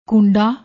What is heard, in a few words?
"koonda", Punjabi; ਕੂੰਡਾ